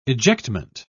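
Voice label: "ejectment", British English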